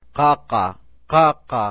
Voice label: "qaqa", Aymara